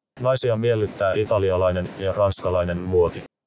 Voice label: cleaned babble